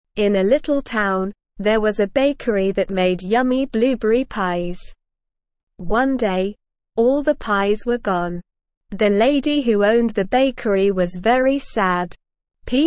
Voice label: ESL Short Stories for Kids Beginner SLOW Reading; The Blueberry Pie Mystery